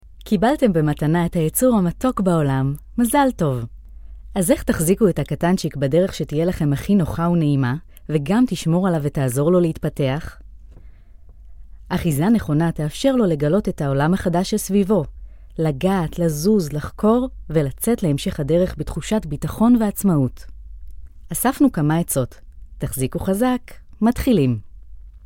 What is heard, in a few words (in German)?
Sprecher Native speaker; professionelle Native Speaker